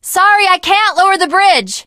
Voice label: initial meeting, teen girl